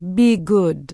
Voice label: Troll Female Laid Back Farewell; NPC

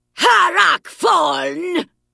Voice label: Vrykul Female Magic User Greeting

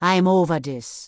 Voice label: Troll Female Laid Back Pissed; NPC

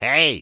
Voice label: Gnome Male Standard Greeting; NPC

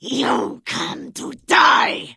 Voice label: Vrykul Female Warrior Greeting

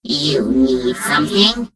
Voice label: Gnome Female Greeting; Death Knight

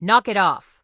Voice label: Human Female Standard NPC Pissed

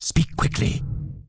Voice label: Undead Male Warrior NPC Greeting

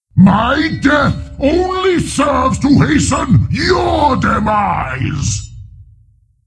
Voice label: Death, Creature; Steelbreaker

